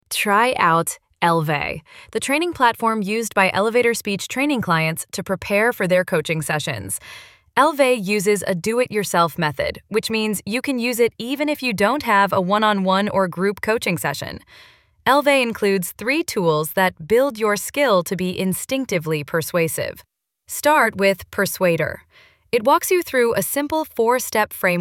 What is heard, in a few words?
explainer